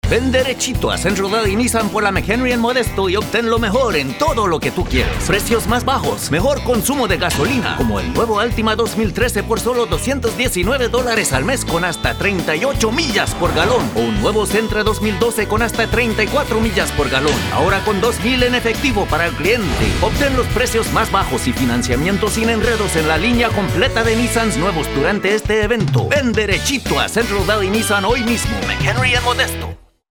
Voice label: Car Dealer Spanish

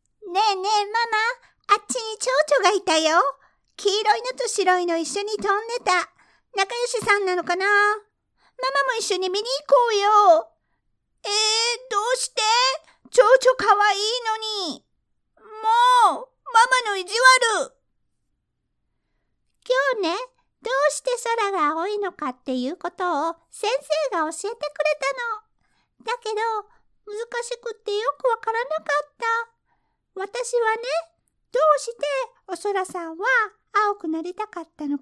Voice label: ANIME Girl

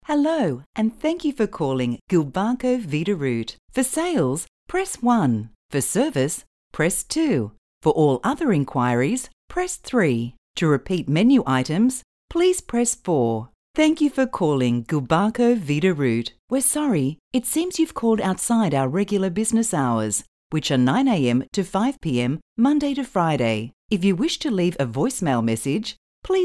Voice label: Telephone

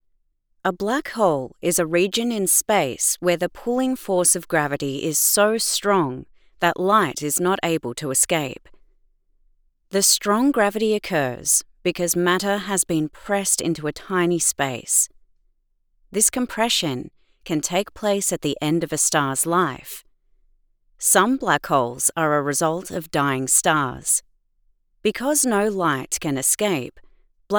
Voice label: NonFiction Clean; Black Holes

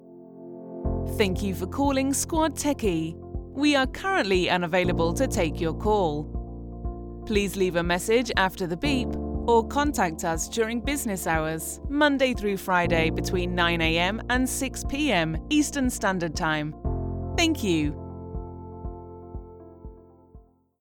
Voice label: Non Business Hours MUSIC

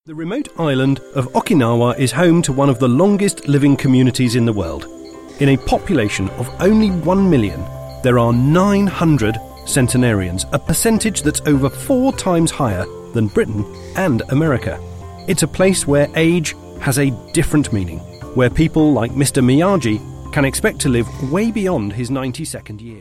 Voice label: Mid Atlantic Narrative

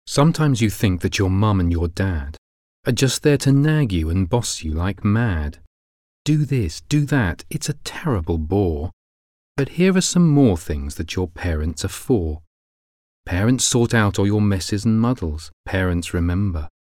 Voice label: Audio Book

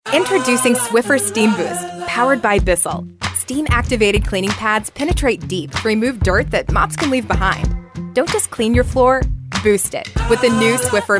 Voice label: Commercial Various Ages

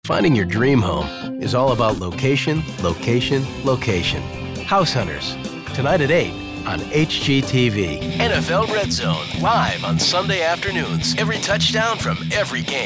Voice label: Promo

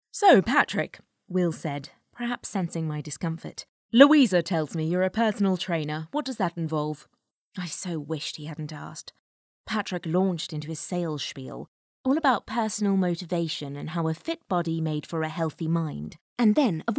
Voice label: Audiobook

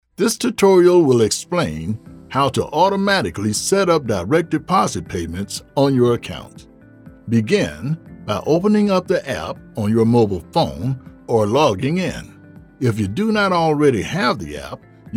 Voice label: BANKING E-LEARNING

